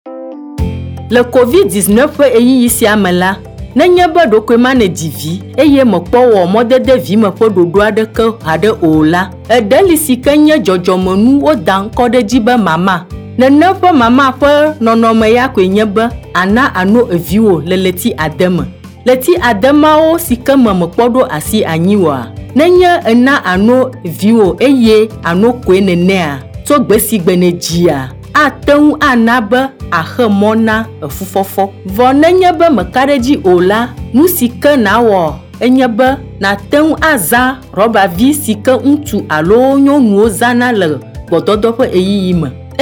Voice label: Spot, Ewe